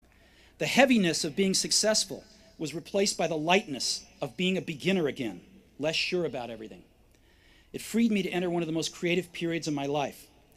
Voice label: Commencement Address; Steve Jobs; 2005; Stanford